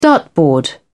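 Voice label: "dartboard", English, British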